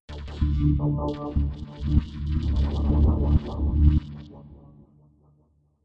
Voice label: strange talk; cstrike